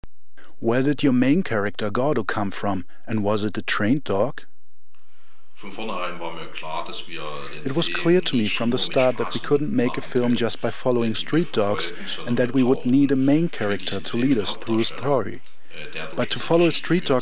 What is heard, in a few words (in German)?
Voice-Over Englisch